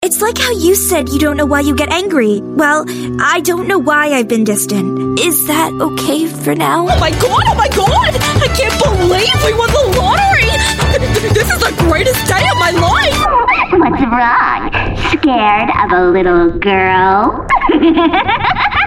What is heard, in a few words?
Character, English US Female